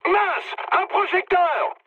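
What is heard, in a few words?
searchlite, mood high, French; War Thunder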